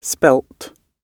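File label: "spelt", British English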